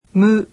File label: japanese alphabet "mu"